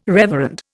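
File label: "reverend", Indian English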